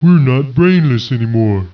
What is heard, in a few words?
annoyed; ogre-mage